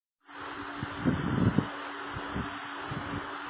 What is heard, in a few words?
Voice Note